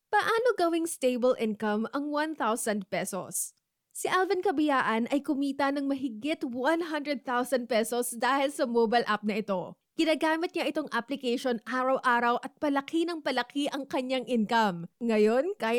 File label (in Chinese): 菲律宾语女 外语 小语种